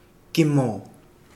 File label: lizard, Kapingamarangi